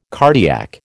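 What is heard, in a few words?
"cardiac", American English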